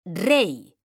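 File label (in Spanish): "REY" Spanish, female; mekanta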